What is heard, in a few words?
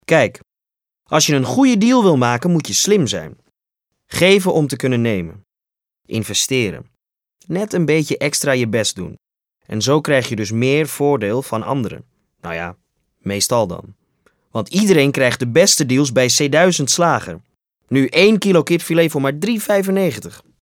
NL Voice Commercial